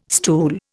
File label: "stool", Indian